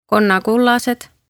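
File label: "Konnakullesed", Estonian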